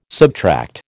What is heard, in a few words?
"subtract", American